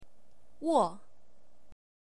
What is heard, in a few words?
Mandarin Chinese